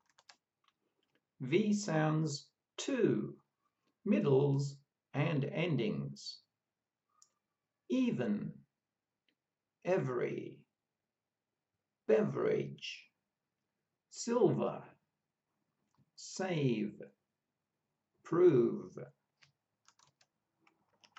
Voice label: V sounds, pronunciation of australian english